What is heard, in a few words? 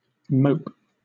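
"mope", British English; pronunciations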